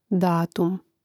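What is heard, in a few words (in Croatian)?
"datum", Hrvatski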